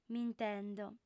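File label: "m'intendo", female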